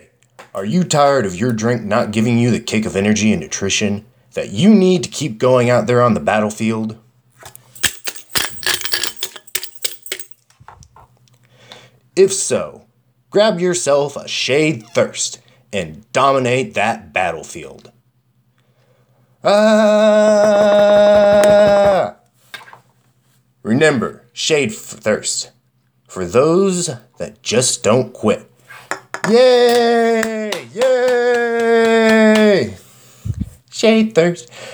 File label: radio ad; Shade Thirst